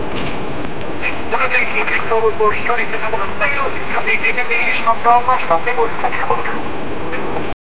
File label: an old portugese cockpit